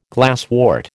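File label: "glasswort", American English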